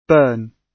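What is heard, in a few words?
"burn", British English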